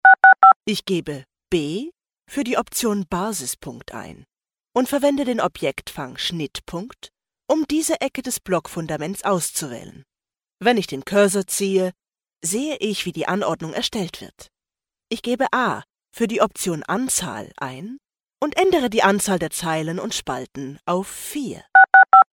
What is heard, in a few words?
germ, woman